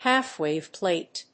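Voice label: "half-wave plate", English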